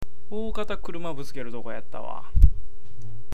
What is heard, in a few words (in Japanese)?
ookata, awaji ben